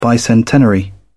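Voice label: "bicentenary", British English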